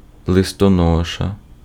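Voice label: Ukrainian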